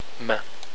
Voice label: "main", french